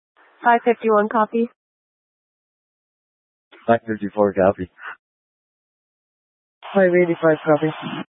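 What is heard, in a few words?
County Fire, Scanner audio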